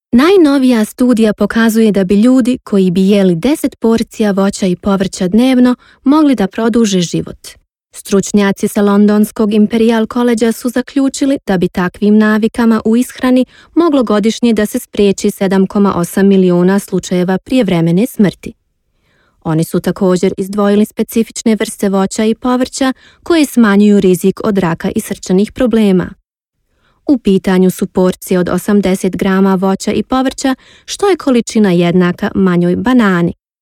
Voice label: Bosnien W

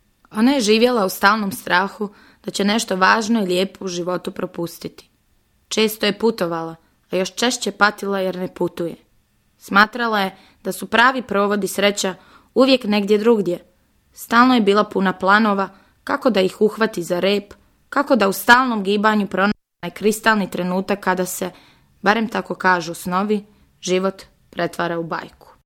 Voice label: Bosnien W

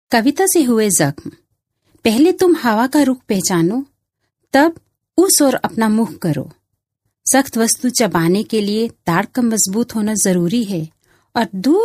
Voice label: Indien W

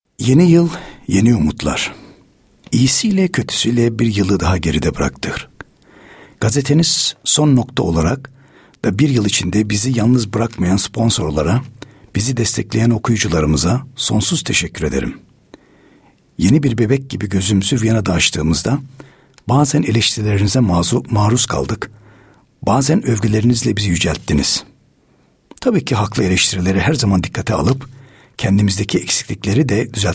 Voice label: Tuerkei M

